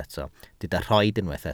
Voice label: "rhoid", welsh